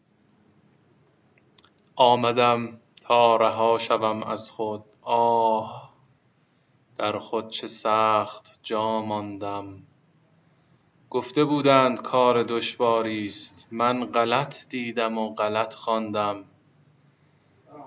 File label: poem